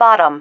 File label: "bottom", female